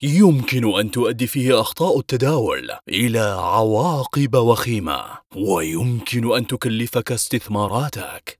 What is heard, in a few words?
arabic movie trailers